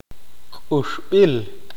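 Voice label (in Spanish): mordida soborno, Spanish, male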